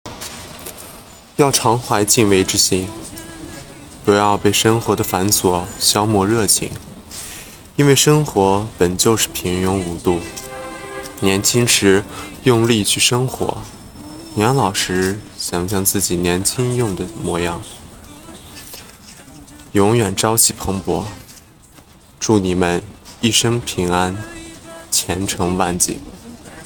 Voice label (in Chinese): 评论; 木犀蒸「生而为人」下的评论